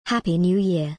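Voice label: "Happy New Year", British English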